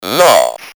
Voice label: "love", voices